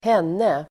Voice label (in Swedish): "henne", Swedish